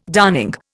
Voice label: "darning", Indian

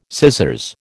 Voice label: "scissors", American

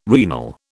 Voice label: "renal", British

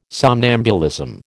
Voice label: "somnambulism", American

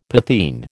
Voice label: "potheen", American

